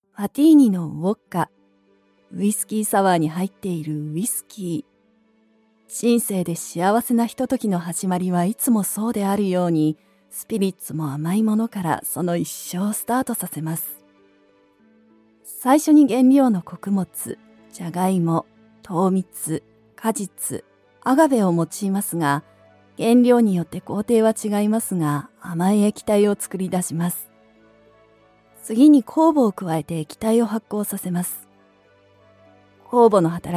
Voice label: japanese audiobooks